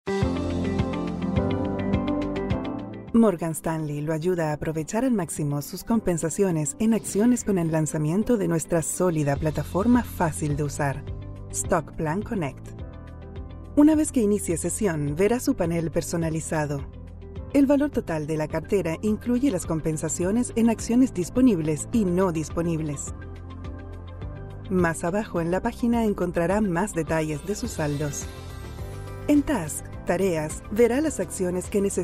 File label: spanish latin american corporate videos